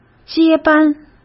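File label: "接班" Mandarin Chinese; chinese flashcards; hsk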